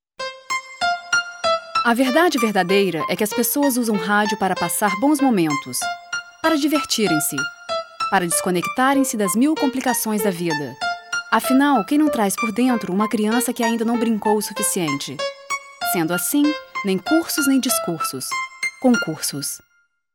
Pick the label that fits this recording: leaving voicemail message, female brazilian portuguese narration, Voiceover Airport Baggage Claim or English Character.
female brazilian portuguese narration